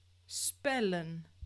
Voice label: "spellen", Dutch; klankstabiliteit

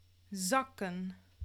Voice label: "zakken", Dutch; klankstabiliteit

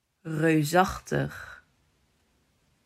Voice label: "Reusachtig", Dutch

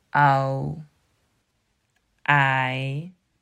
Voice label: au aai, Dutch

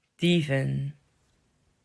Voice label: "Dieven", Dutch

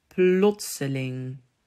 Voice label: "Plotseling", Dutch

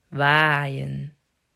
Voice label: "Waaien", Dutch

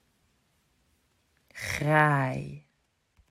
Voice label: "Graai", Dutch

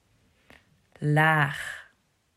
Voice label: "Laag", Dutch

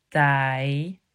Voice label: "Taai", Dutch

